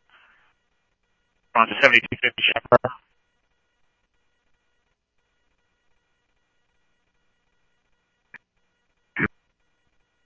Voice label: Police, scanner